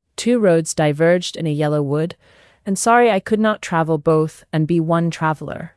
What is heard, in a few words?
generated speech